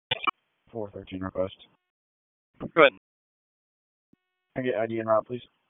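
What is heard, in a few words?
Raleigh Police Southeast District Dispatch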